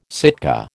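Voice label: "Sitka", American English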